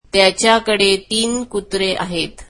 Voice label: marathi he has three dogs

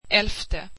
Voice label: swedish eleventh

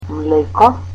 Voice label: "milk", Macedonian

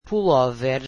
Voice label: "sweater", Portuguese

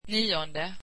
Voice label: swedish ninth

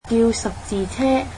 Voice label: "Call the ambulance", Cantonese

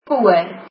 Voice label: in front of, afrikaans

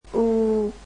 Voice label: "u", vietnamese alphabet

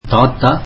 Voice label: tamil grandfather

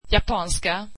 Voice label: swedish Japanese

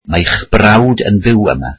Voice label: welsh your brother lives here

